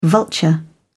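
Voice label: "vulture", British English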